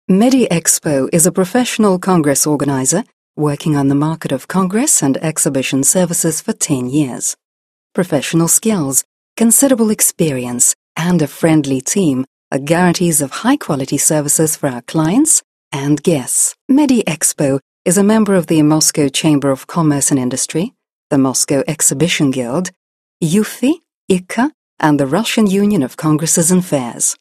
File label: russian british female